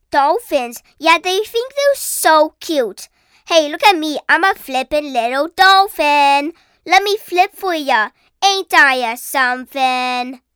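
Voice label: animation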